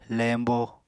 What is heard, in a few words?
"leñbo", Apatani